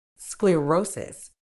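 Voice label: "sclerosis", British English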